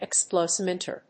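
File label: "explosimeter", English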